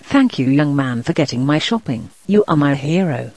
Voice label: old lady